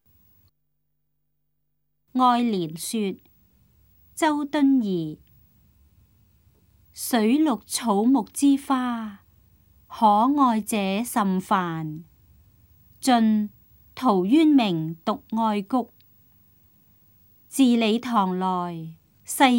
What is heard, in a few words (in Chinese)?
cantonese; 愛蓮說; 粵語